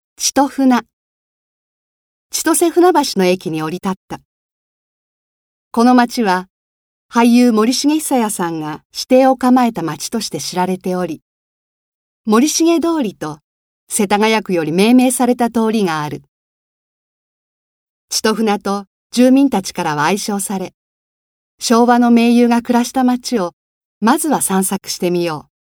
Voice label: 街歩きナレ

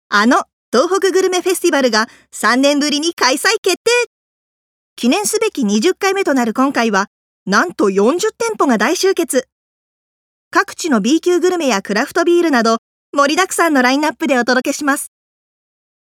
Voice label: イベント告知ナレ

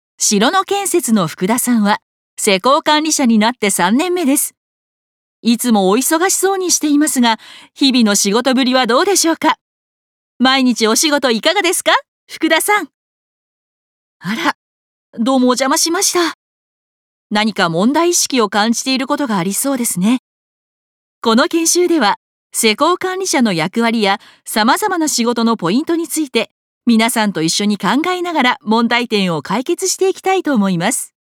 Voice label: 企業紹介ナレーション

